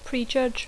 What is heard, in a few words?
"prejudge", English; Dacco